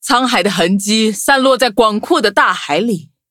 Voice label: touch, zh-hant; cookie run

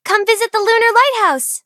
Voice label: touch, en; cookierun

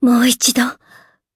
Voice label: defeat ja; cookie run

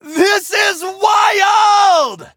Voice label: victory, en; cookie run

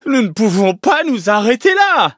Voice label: defeat, fr; cookie run

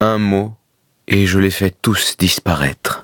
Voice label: level up, fr

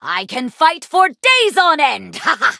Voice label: levelup, en; cookierunwiki

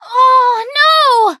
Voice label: hit, en; cookierunwiki

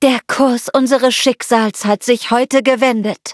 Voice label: victory, de; Cookie Run

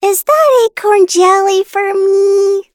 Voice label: touch, en

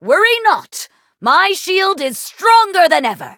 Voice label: Cookie loading, en; cookie run

